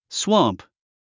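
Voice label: "swamp", English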